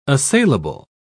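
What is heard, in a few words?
"assailable", British English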